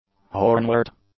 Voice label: "hornwort", English; nouns

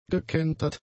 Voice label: "gekentert", German; adjectives

